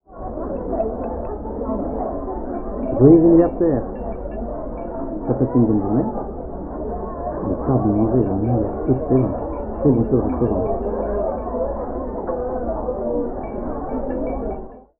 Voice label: dinner french without